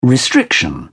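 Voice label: "restriction", English, British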